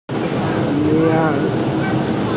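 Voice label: "niao3", Mandarin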